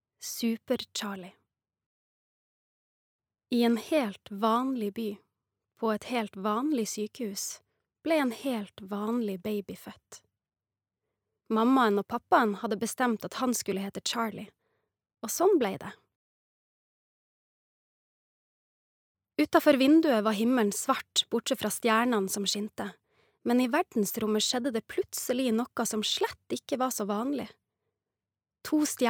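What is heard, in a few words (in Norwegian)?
barnebok, norsk, kvinne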